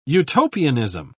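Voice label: "utopianism", British English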